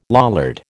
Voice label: "Lollard", American

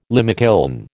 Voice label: "limekiln", American

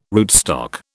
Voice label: "rootstock", British

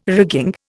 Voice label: "rigging", Indian

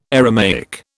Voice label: "Aramaic", British

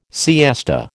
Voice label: "siesta", American

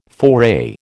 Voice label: "foray", American

